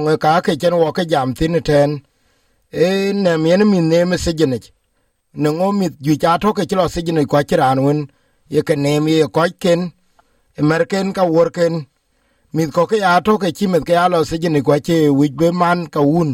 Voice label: dinka, podcast; SBS Dinka